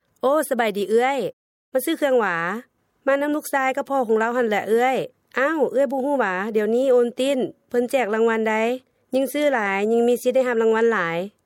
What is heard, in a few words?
Lao